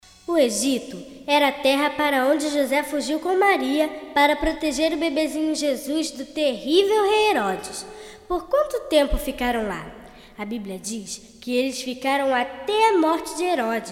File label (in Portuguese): Narração